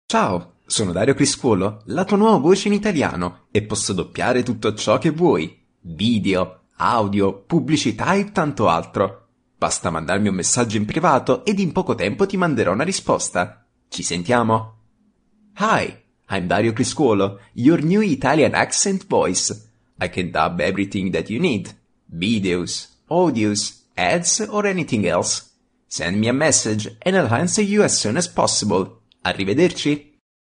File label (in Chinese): 意大利语男 外语 小语种